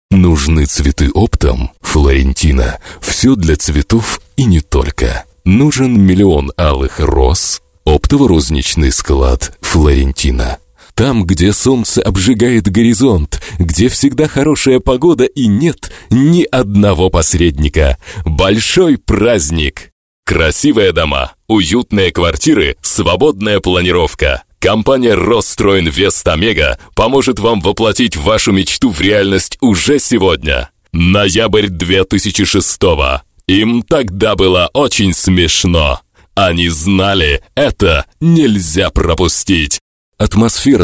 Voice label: russian